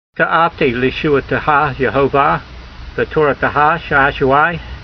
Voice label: voice, hebrew language; Psalm 119:174; ta'avtee leeshoo'ateha yehovah, ve'torateha sha'a'shu'ai